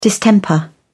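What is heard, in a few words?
"distemper", British English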